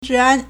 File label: "zhi4an2", Chinese